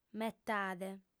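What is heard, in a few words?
"metade", female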